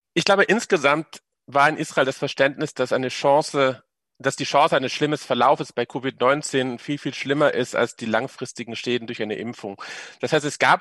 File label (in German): radio